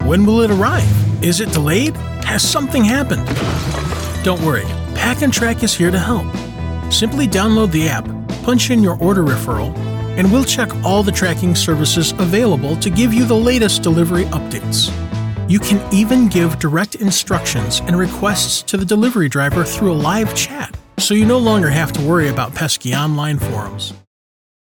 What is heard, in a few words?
Explainer